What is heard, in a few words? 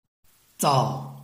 "zao4", Chinese